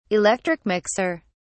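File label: "electric mixer", British English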